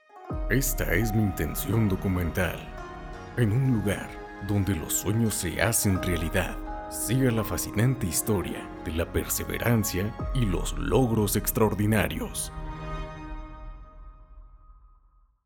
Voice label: Documental; locutor

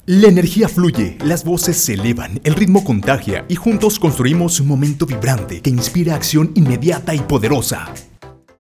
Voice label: Voz Dinamica Musicalizado

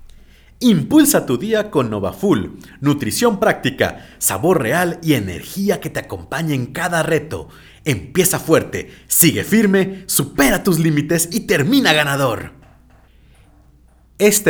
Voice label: Dinamico

